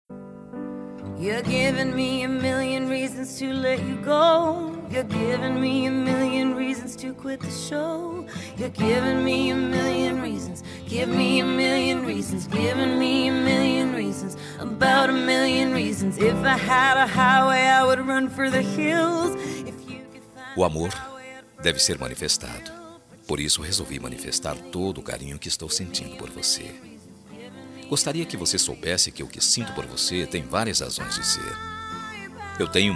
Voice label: ROMANTICA SUAVE MASC, telemensagem romantica suave

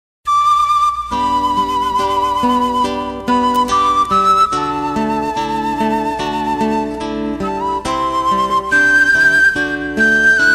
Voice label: ANIV SOBRINHO MASC, telemensagem; aniversario sobrinha sobrinho

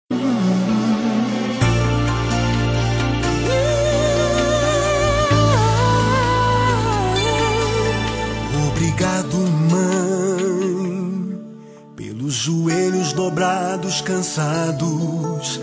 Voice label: Dia das Maes para Avo FEM; Dia das Maes para Avo FEM C; telemensagem dia das maes avo

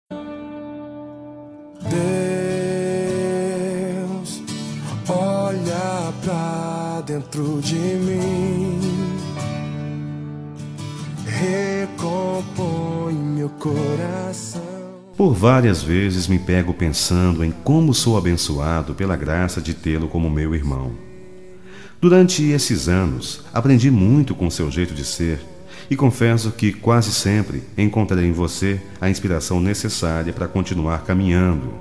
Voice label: ANIVERS. IRMAO MASCULINO, telemensagem

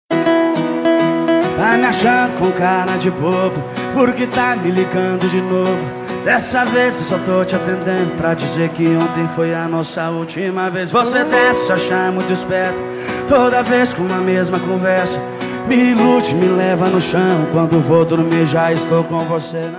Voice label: ANIV PARA ESPOSA, telemensagem

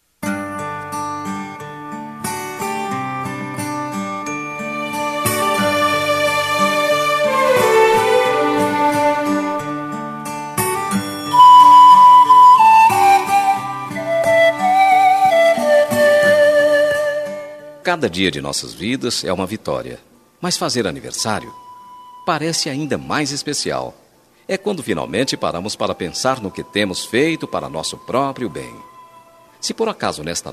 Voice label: aniversario FILHA MASC, telemensagem aniversario filha filho

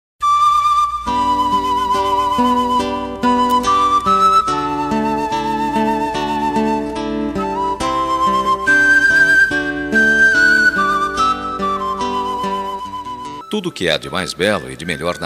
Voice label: ANIV NORA MASC, telemensagem

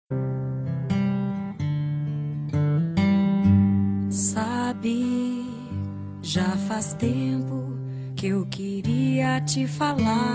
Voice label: DIA DAS MAES MASC, telemensagem dia das maes filha e filho enviam; DIA DAS MAES SING MASC

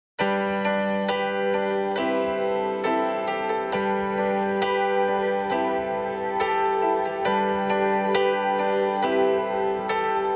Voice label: ANIV ROMANTICO NEUTRO FEM; telemensagem aniversario romantico

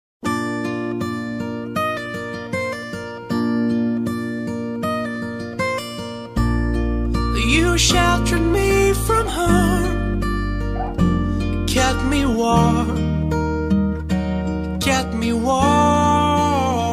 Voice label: ANIV PARA ESPOSA, telemensagem; aniversario esposa

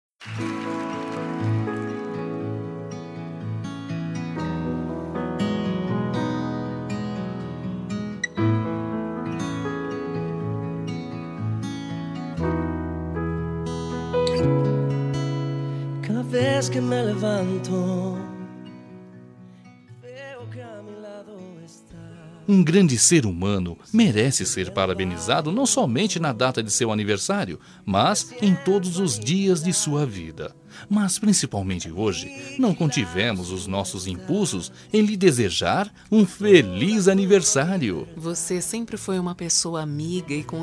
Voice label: Aniversario Cunhada Duas Vozes, telemensagem

